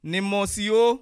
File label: christmas, bassa; Nì Mɔ̀sìo